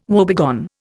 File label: "woebegone", Indian